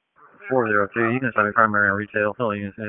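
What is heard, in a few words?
Police Dispatch; Wake Forest Police Disp; p25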